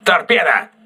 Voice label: voice message torpedo, crew ships russian